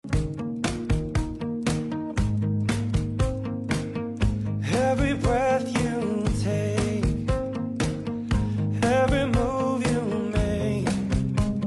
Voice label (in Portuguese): romântica marido, Telemensagem Romântica – Para Marido – Voz Feminina